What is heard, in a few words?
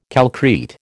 "calcrete", American